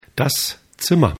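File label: "Zimmer", German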